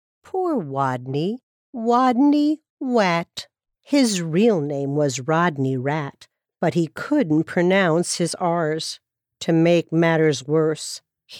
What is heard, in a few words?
Children